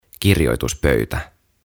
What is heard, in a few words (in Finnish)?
"Kirjoituspöytä", suomeksi; OTAVA KONSERNI; aantamisohjeet suomeksi